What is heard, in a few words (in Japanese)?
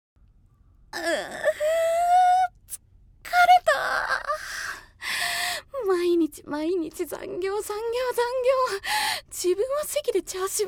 会社員女性